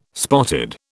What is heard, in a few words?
"spotted", British